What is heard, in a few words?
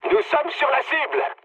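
near waypoint, mood medium, French; War Thunder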